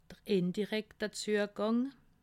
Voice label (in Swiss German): "indirekte", alsace